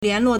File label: "lian2luo4", Chinese